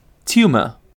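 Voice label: "tumor", British English; pronunciations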